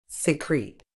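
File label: "secrete", British English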